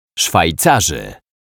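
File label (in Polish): "Szwajcarzy", Polish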